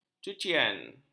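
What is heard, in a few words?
"cucian", Malay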